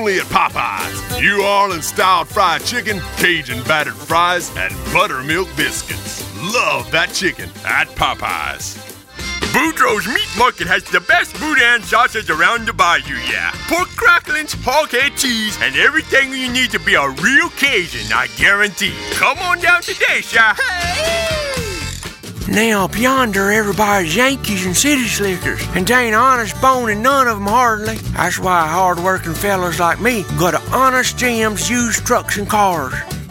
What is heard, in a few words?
Voice Actor Characters